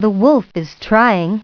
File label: too high story narr; Putt Time